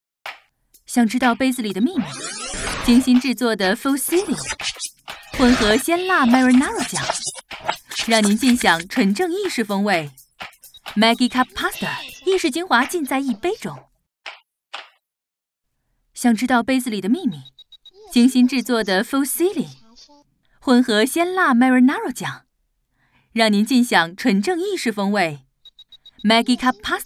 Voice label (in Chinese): 女 广告 食品酱料